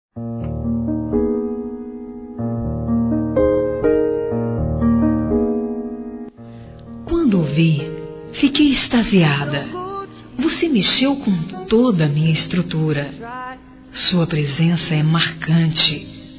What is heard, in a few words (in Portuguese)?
Feminino, Telemensagens Amor; Amor a Primeira Vista